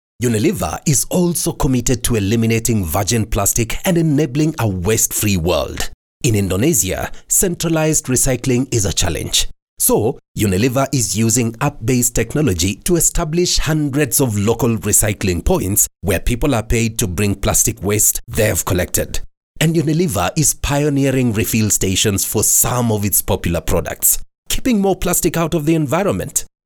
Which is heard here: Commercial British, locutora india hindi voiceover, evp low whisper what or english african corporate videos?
english african corporate videos